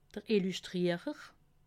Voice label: "illuschtrierer", alsace

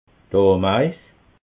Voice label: "ameis", alsace

